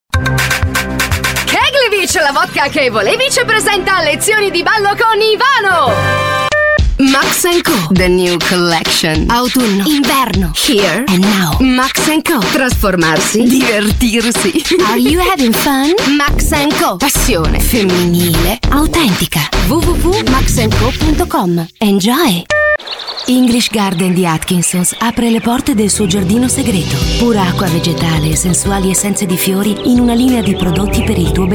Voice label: italian voice over female commercial